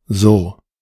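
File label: "sur-", German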